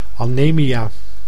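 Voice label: "amaemia", Dutch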